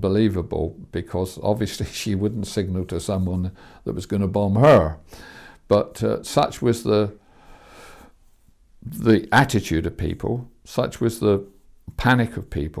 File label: German speaking woman accused of being spy